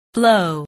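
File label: "blow", English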